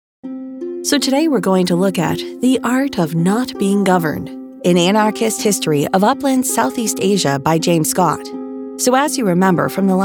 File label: E-LEARNING